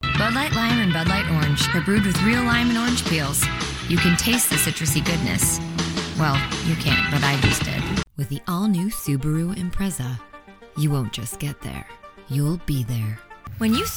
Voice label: voiceover commercial women; Chicago